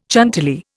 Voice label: "Chantilly", Indian